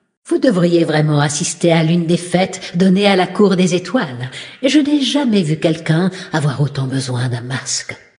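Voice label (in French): Female Blague, voix françaises; Sacrenuit; Masque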